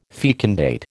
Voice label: "fecundate", American